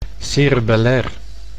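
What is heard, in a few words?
"cerebellair", Dutch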